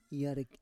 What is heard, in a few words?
"yarek", Roon